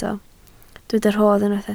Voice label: "rodd", Welsh